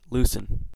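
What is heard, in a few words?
"loosen", US English; pronunciations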